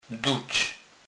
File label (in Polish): "duc", kurpiow